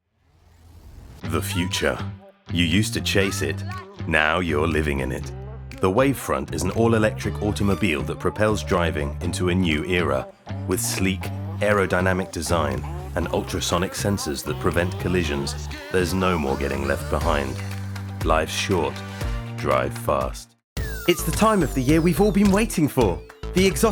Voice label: Commercial

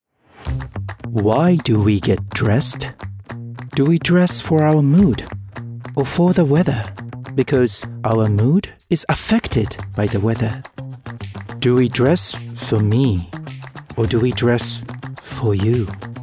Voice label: Commercial Ad English; Uniqlo

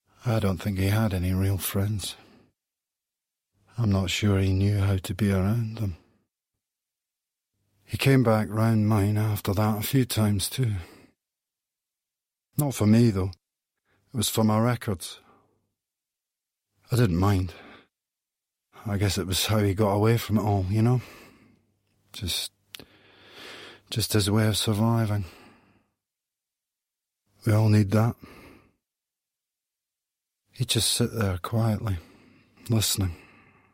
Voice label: short film narration; Blue Notes

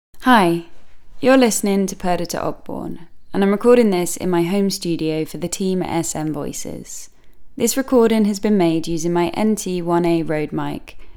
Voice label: HOME STUDIO TEST

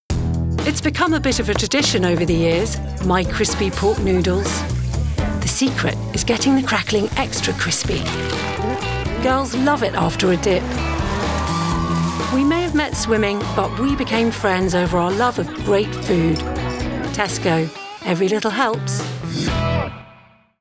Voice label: Voice Reel MIX; TESCO

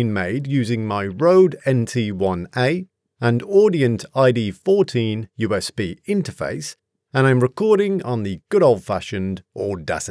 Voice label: home studio sample

